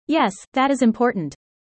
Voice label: user fast